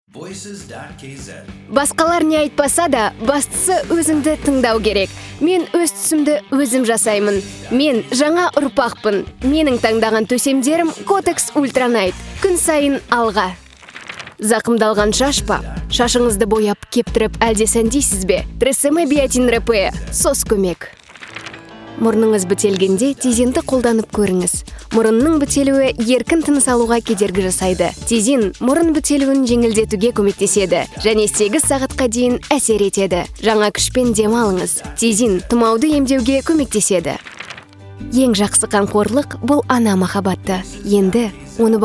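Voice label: Kazakh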